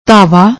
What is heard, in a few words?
"dhava", Punjabi; ਧਾਵਾ